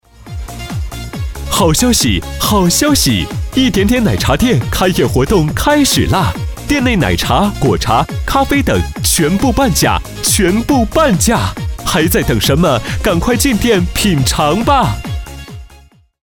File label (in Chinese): 电购促销 年轻活泼